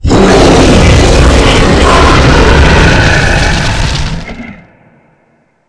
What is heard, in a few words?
dying boss